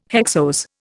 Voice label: "hexose", Indian

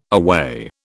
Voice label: "aweigh", British

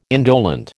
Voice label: "indolent", American

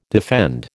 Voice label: "defend", American

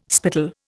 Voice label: "spittle", Indian

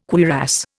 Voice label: "cuirass", Indian

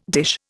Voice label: "dish", Indian

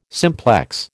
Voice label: "simplex", American

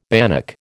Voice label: "bannock", American

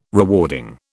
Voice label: "rewarding", British

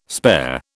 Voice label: "spare", British

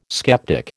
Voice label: "sceptic", American

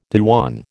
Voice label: "dewan", American

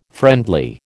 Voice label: "friendly", American

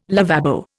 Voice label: "lavabo", Indian

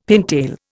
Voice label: "pintail", Indian; Dictionary

